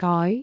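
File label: "goi2", Cantonese; amazonHiuJin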